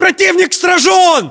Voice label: inform killfirm infantry, battlechatter ru; localized english